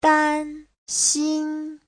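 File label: "dānxīn", Chinese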